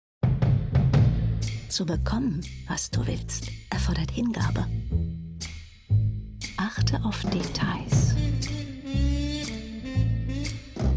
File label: spot